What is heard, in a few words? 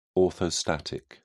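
"orthostatic", British English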